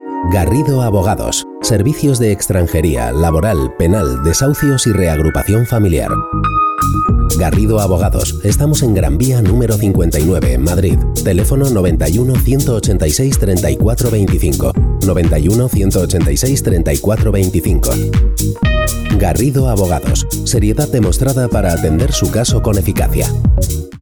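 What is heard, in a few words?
Spanish Spain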